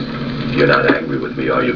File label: angry